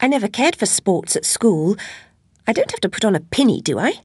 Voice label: chitchat; svencoop; Alice